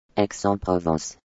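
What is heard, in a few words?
"Aix-en-Provence", French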